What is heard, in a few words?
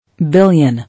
"Billion", English